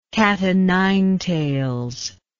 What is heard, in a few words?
"cat o nine tails", English